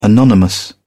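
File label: "anonymous", British English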